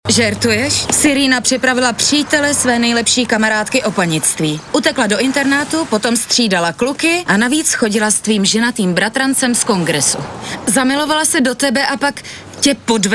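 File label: female Czech drama mix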